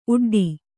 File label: "ಊಡಿಡು", Kannada